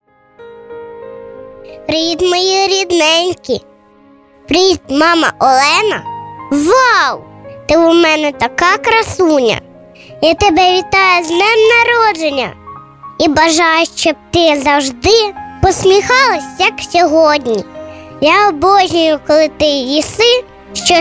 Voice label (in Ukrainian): ukrayinskoyu; avtorska pisnya